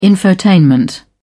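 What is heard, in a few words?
"infotainment", British English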